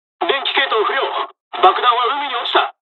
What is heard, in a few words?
dialog, dialogs japanese; us midway; War Thunder